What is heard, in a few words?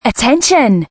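Attention (British), Voice; Danger Hiptop-Slide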